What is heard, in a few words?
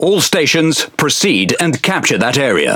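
Speech Quick Commands Enemy Point, British English; World Of Warships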